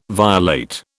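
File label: "violate", British English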